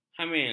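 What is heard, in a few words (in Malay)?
"hamil", Malay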